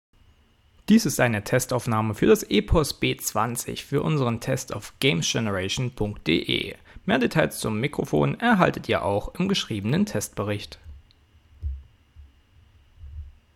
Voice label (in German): Testaufnahme EPOS B20